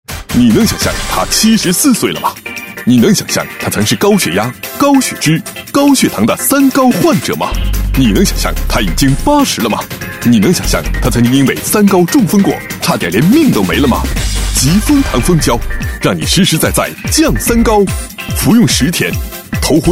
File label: boy, Chinese; gouwu; fengjiao